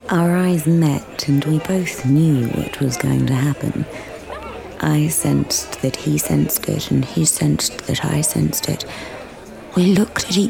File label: audio drama